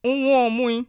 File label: understand, bassa